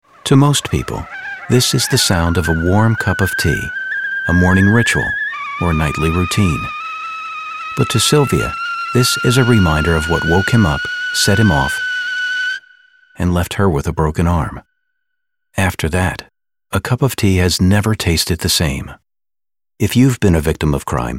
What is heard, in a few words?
Radio; Newfoundland Victim Services; Kettle